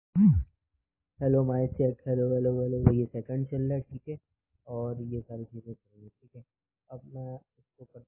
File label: Voice Note